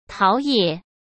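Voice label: "陶冶", Mandarin Chinese; chinese flashcards; hsk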